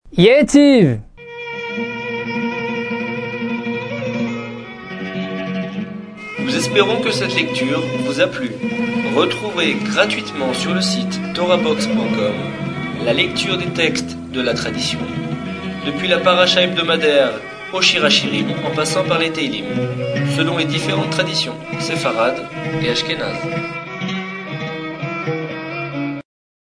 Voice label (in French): apprentissage taamim selon le rite constantinois